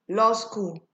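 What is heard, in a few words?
"law school", Nigerian Pidgin, female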